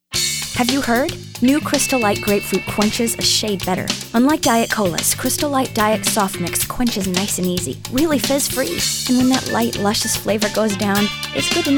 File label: Voice Over